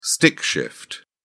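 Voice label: "stick shift", British English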